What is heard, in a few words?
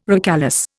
"recalesce", Indian